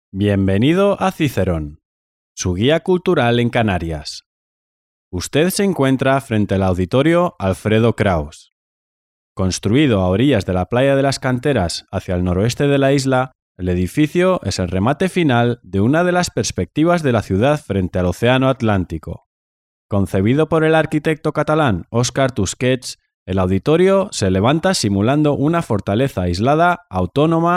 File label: Castilian